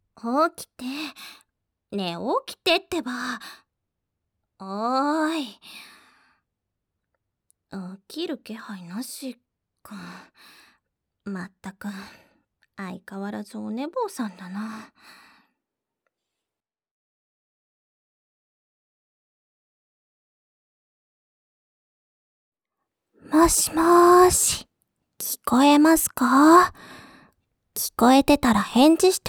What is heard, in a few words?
voice only, ASMR; エッチしたからって彼女ヅラしてくる姉に冷たくした結果、オレ無しじゃ生きていけなくなったらしい件